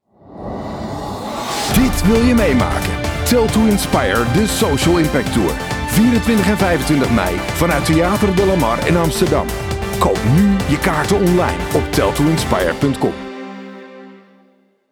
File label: Commercial; TTI